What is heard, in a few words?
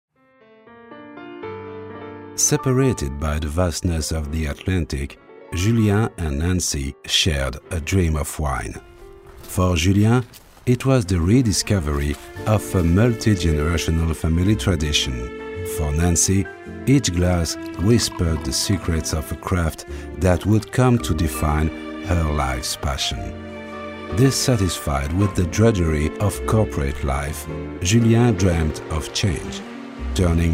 ENG Narr; A Bout De Vin